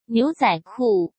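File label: "牛仔裤", Mandarin Chinese; chinese flashcards; hsk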